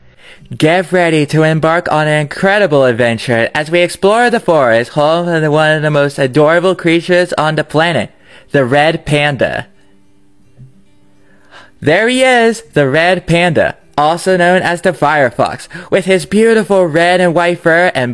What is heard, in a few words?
Narration